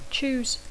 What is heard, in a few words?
"choose", English